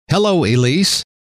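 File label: "hello", male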